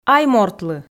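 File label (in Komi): "аймортлы", Komi, female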